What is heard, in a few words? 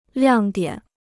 "亮点", Chinese